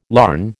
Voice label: "larn", American